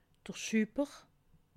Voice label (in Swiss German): "schubber", alsace